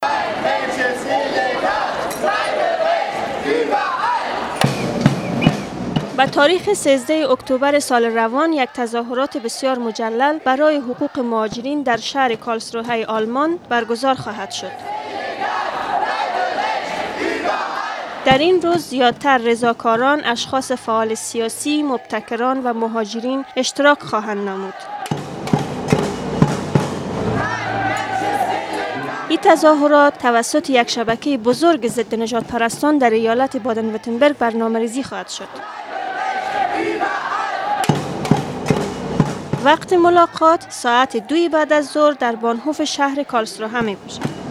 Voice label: Aufruf Dari, Sprachnachrichten

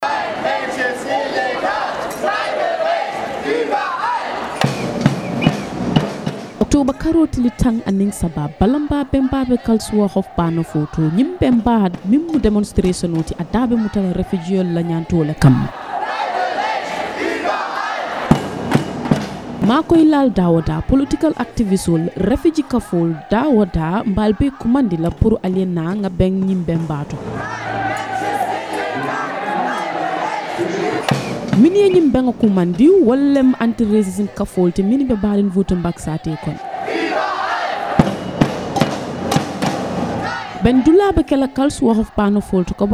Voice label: Aufruf Mandinka, Sprachnachrichten

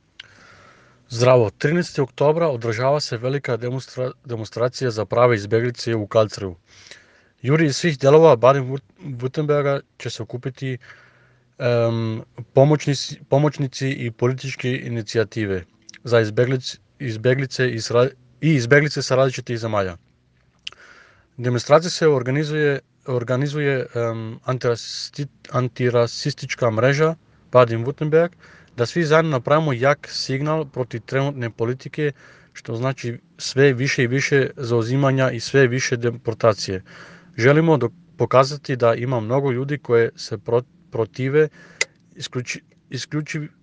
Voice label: Aufruf Serbisch, Sprachnachrichten

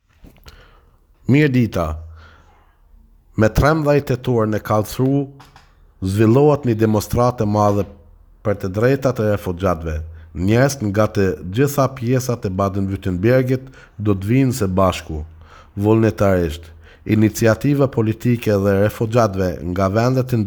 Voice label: Aufruf Albanisch, Sprachnachrichten

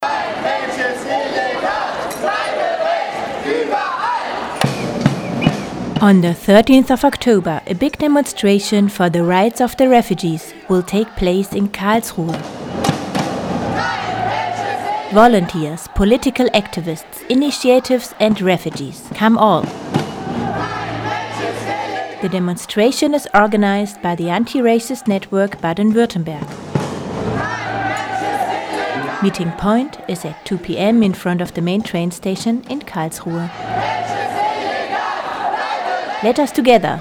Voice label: Aufruf Englisch, Sprachnachrichten

